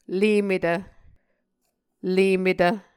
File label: "lemetv", Muscogee